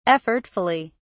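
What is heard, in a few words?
"effortfully", British English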